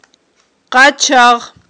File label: "къачагъ", Aghul